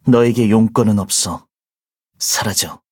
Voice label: greeting, ko; kohlrabi; Cookie Run

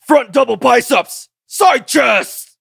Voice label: level up, en; Cookie Run

